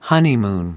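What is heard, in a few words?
"honeymoon", American English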